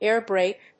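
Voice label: "air brake", English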